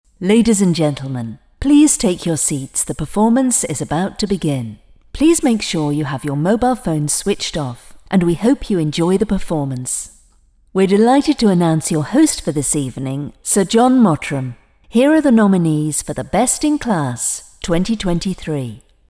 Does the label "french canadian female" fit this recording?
No